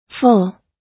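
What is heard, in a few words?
"full", American English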